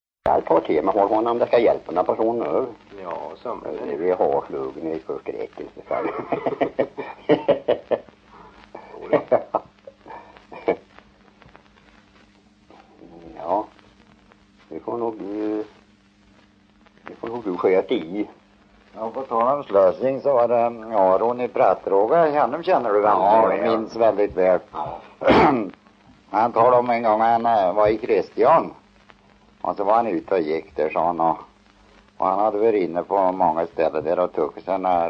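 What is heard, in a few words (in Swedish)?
Järnskog Värmland, dialekter; Folkminnen; Svenska sagor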